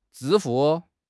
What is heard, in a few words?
"zhi1 fu4", 汉语口语; 汉语口语新时代-初级下